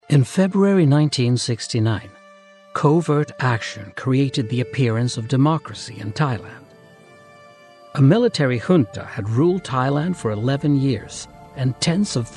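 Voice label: narration english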